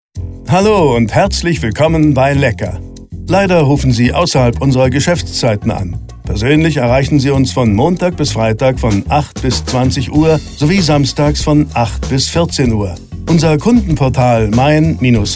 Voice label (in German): telefonansagen sprecher